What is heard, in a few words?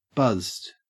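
"buzzed", Australian English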